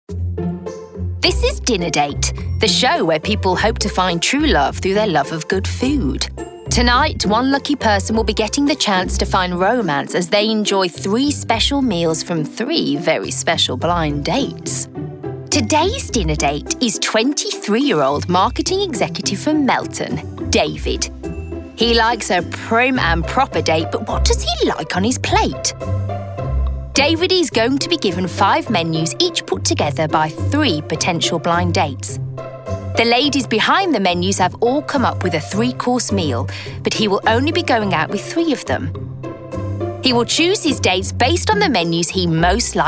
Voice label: Narration; Dinner Date